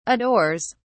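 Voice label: "adores", British English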